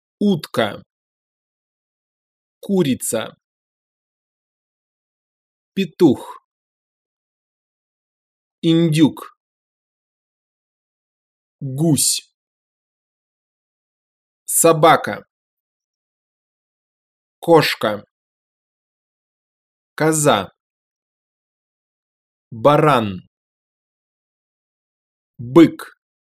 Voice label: animals russian